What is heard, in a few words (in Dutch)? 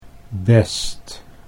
"best" Dutch